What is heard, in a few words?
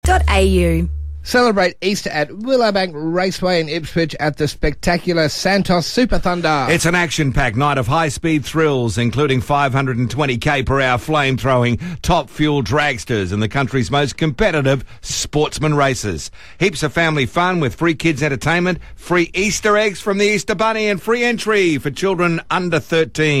Friday Breakfast Live Read; 97.3FM; Jet Car Thunder